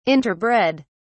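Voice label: "interbred", British English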